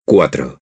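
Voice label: "cuatro", Spanish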